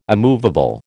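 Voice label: "immovable", American English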